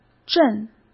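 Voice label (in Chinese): "镇", Mandarin Chinese; hsk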